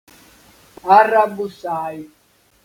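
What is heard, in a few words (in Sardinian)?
"Arrabussai", Sardinian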